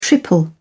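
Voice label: "triple", British English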